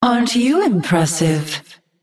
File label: Female Naga Well Played